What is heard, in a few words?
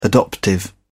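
"adoptive", British English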